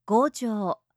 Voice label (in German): "gojo", japanische maedchennamen